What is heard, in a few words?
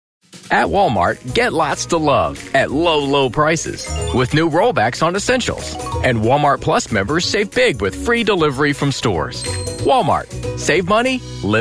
Commercial